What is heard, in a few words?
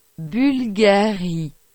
Bvlgari Pronunciation